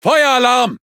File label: Speech Alarms Fire Alarm; World Of Warships